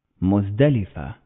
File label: "Muzdalifah", Arabic